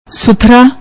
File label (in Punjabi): "suthraa", Punjabi